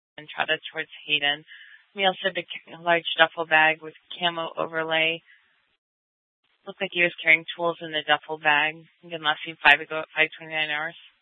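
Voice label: Scottsdale PD North Dispatch; Nth Dispatch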